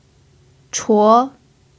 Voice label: "chuo2", chinese pinyin

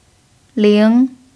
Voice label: "ling2", chinese pinyin

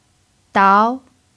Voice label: "dao2", chinese pinyin

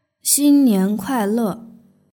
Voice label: "xin nian kuai le", Chinese; nygreeting

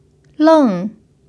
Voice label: "leng4", chinese pinyin

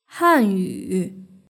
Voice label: "hanyu", Chinese; hsk6

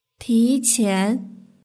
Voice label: "tiqian", Chinese; hsk4

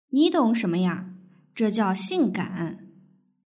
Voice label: dialogue, Chinese; bodyfigure

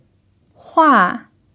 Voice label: "hua4", chinese pinyin

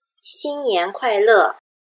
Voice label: "xin nian kuai le", Chinese

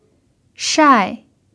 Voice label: "shai4", chinese pinyin

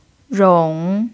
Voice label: "rong3", chinese pinyin

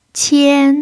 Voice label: "qian1", chinese pinyin

